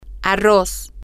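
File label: rice, Spanish, female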